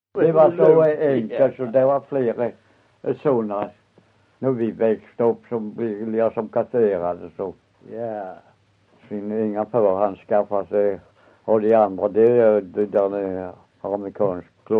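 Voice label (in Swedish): Össjö Skåne, Svenska sagor, dialekter; Folkminnen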